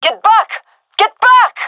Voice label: request fall back, gendarmerie fem; vo; agents